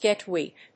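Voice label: "get weak", English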